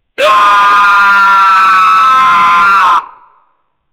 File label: a goblin is shouting a